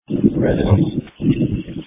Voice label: Sheriff Dispatch